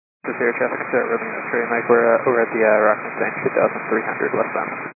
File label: 122 925MHz, scanner radio